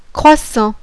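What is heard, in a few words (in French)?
"CROISSANT", French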